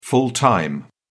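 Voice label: "full time", British English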